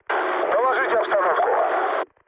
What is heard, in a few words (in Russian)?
chat, speech ru; War Thunder